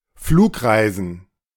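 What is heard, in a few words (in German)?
"Flugreisen", German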